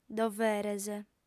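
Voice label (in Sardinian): "doveres", female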